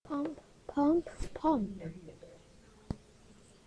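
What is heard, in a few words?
"pump", spoken word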